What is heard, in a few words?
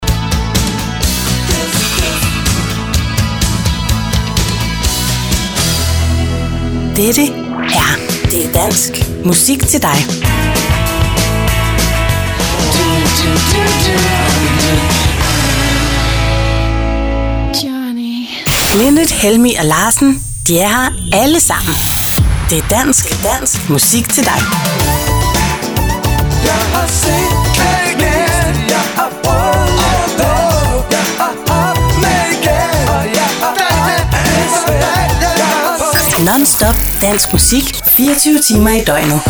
Radio